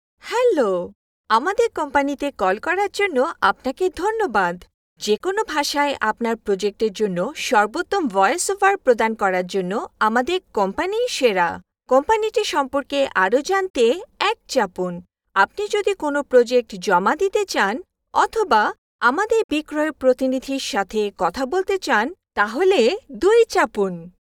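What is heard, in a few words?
Bengali female IVR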